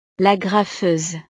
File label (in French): "l agrafeuse", frances; utiles escolares